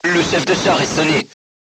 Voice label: voice message commander contused, crew tanks french